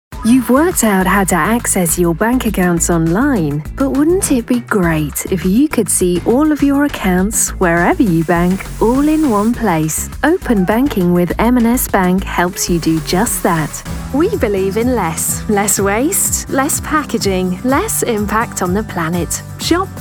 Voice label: Commercial Showreel